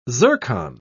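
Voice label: "zircon", British English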